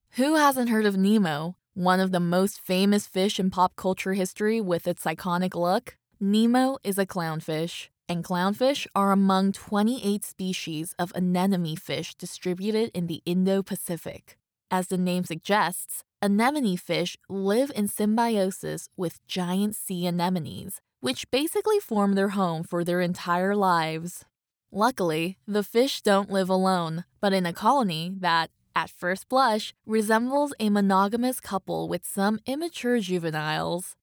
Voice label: female korean voice over upbeat